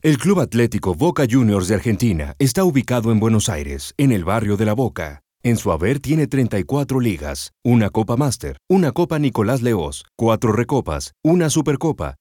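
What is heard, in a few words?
Spanish narration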